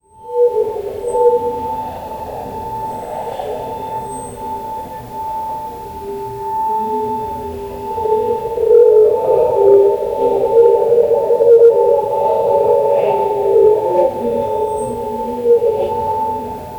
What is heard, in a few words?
an unsettling alien voice